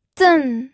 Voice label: "zen4", pinyin